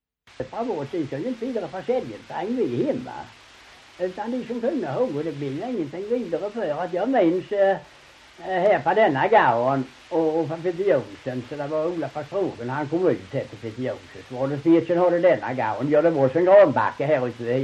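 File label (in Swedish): Ramdala Blekinge, svenska sagor dialekter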